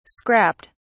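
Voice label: "scrapped", British English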